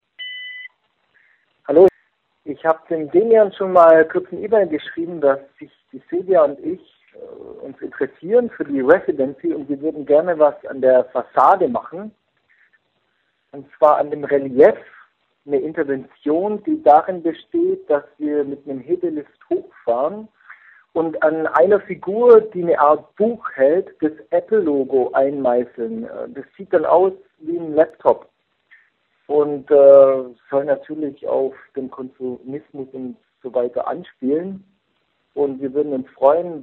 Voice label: Voicemail